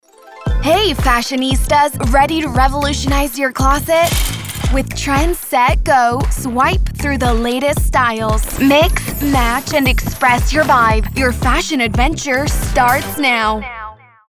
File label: ENG ENG Energetic Vibrant